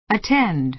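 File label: "attend", American English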